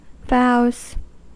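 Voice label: "vows", US English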